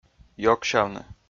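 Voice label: "ёгсявны", Komi, male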